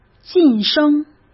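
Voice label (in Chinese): "晋升", Mandarin Chinese; chinese-flashcards; hsk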